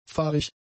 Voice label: "fahrig", German; adjectives

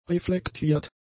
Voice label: "reflektiert", German; adjectives

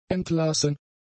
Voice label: "entlassen", German; adjectives

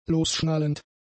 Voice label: "losschnallend", German; adjectives

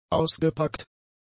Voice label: "ausgepackt", German; adjectives

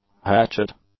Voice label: "hatchet", English; nouns

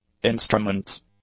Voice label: "instruments", English; nouns

